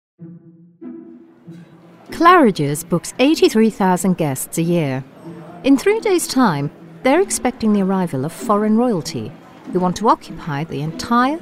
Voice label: Narrative